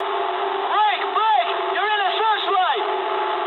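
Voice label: English searchlite mood low; War Thunder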